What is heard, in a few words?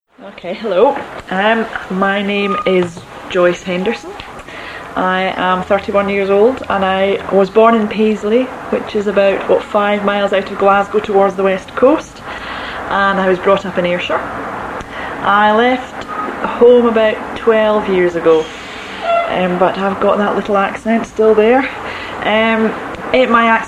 scottish